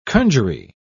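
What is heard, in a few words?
"conjury", British English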